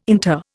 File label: "inter", Indian